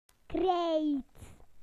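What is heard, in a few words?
"chalk" British English; PictureDictionary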